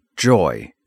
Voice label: "joy", English